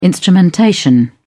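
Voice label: "instrumentation", British English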